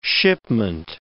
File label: "shipment", words